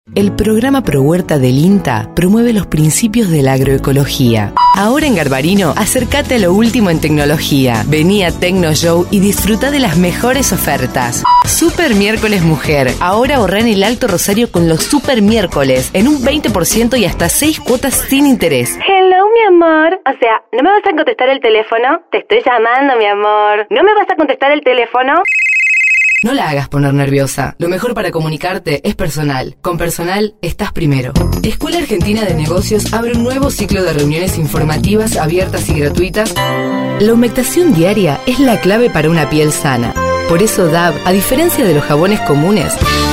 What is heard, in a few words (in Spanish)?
locucion